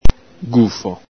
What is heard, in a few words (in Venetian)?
"gufo", Veneto; ag.; ENG: hunchback, hump